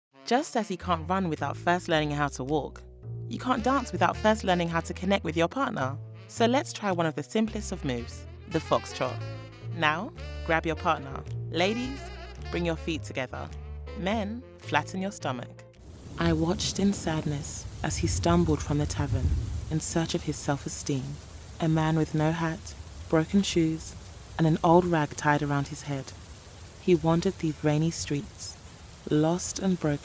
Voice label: narration